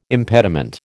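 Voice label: "impediment", American English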